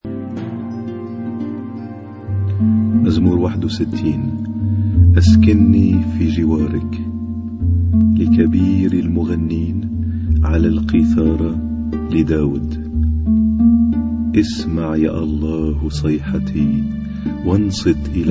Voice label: Arabic Psalm Zaboor